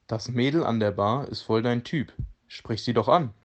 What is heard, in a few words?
"ansprechen", german